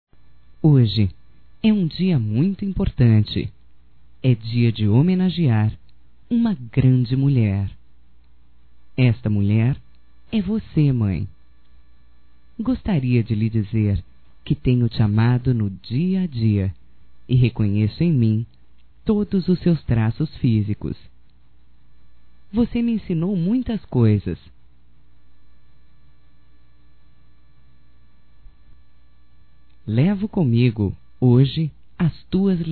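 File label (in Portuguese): DIA DAS MãES HOMENAGEM - Feminino, Telemensagens; Homenagens; Telemensagens 2017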